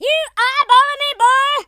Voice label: i'll get you, Drill Sergeant, speech